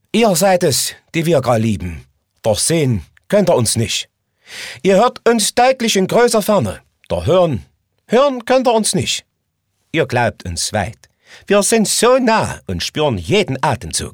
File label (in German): saechsisch, Dialekt, maenner